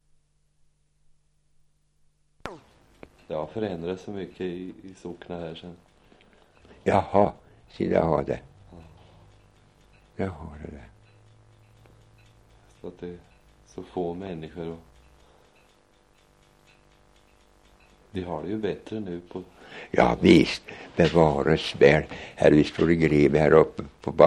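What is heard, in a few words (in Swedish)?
Askeby Östergötland, dialekter; Folkminnen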